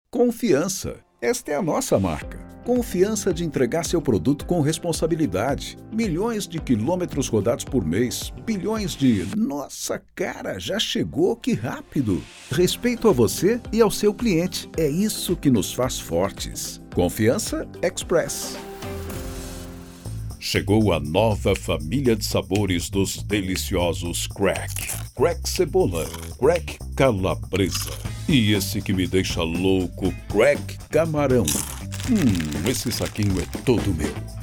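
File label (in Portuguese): Português - Brasileiro, Masculino, Voz Padrão - Grave; Rolo 2023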